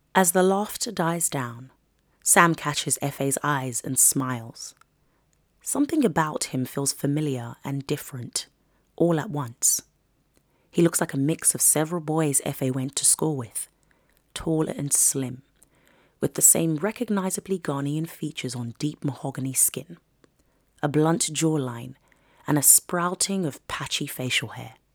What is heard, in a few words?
Home studio Sample